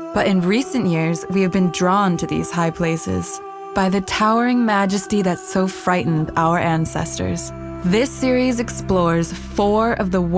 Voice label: female English USA transatlantic accent mountains documentary; voices; devine voices